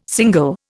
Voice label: "single", Indian

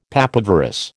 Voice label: "papaverous", American

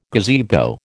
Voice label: "gazebo", American

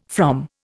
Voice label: "from", Indian

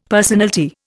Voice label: "personalty", Indian; Dictionary

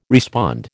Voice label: "respond", American

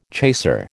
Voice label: "chaser", American

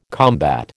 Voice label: "combat", American

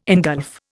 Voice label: "ingulf", Indian

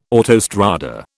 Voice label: "autostrada", British

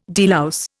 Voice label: "delouse", Indian

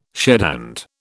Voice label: "shedhand", British

